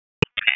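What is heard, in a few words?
Police District Dispatch, p25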